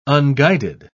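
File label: "unguided", British English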